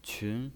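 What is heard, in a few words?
"qun", Mandarin Chinese, second tone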